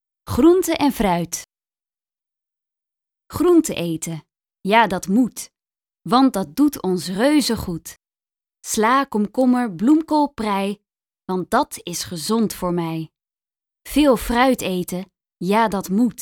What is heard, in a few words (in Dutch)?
Groente en fruit gedicht; taalsprong